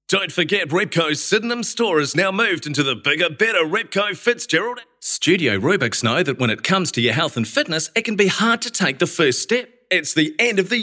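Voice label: New Zealand Male Voice Talent Radio Phone Video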